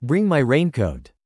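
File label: "bring my raincoat", English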